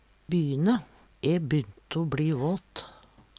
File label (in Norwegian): "byne", Numedalsmål